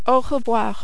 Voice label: "au revoir", French

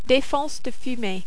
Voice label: "defense de fumer", French

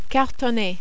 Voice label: "cartonner", French

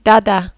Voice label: "dada", French

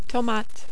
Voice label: "tomate", French

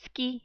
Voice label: "ski", French

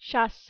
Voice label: "chasse", French

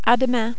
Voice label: "a demain", French

